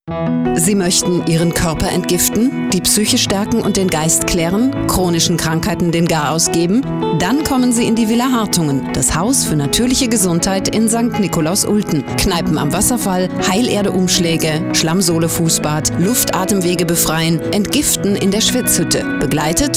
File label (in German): Werbespot; Villa Hartungen